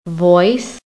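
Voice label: "voice", American English; PHONETIC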